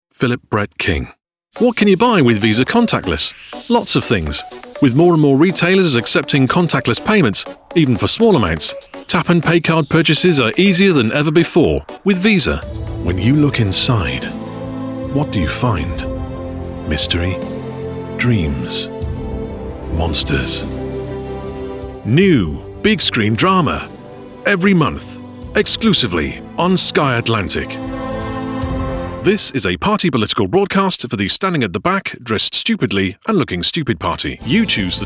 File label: commercial voice